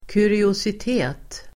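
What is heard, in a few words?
"kuriositet", Swedish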